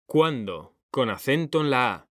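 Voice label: "CUÁNDO", Spanish; mekanta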